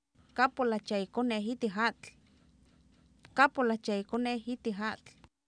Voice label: kAapolAktia iikOneew Itik Aatl, Oapan minimal pairs female; Oapan minimal pairs 1998